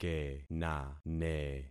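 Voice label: "kenane", concatenated syllables; Affixing experiment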